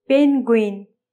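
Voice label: "penguin", English; vertebrates invertebrates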